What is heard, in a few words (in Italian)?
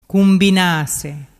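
"cumbinase", dialect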